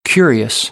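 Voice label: "curious", American English; AmericanBritish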